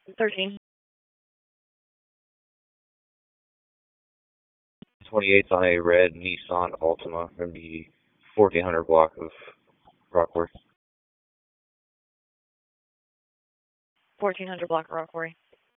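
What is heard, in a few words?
Raleigh Police Southeast District Dispatch; wake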